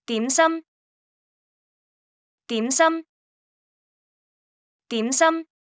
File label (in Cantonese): 點心, Cantonese; Traditional Chinese